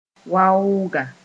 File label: "waooga", Mooré; shoulder bag